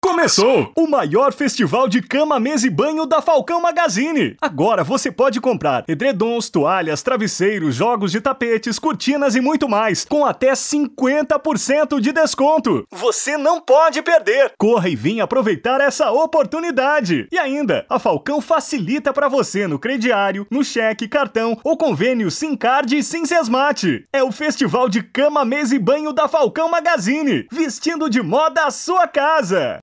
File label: spot voz